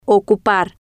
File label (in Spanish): "ocupar", Spanish